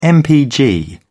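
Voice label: "mpg", British English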